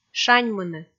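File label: "шаньмыны", Komi, female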